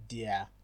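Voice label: "deir", Nigerian Pidgin, male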